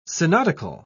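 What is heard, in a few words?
"synodical", British English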